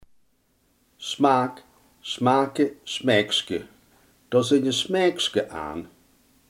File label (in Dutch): "smaak", Limburgs